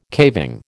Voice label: "caving", American